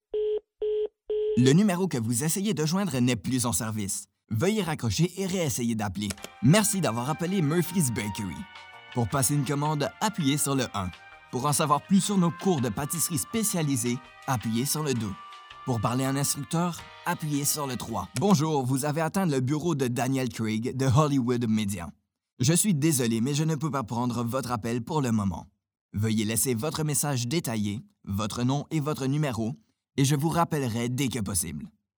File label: male French Canadian IVR compilation